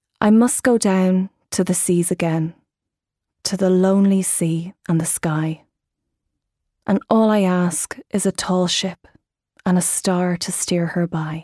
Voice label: Narration